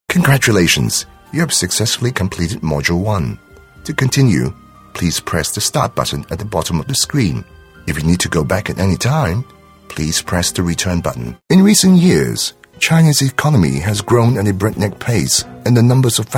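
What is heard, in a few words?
British, narration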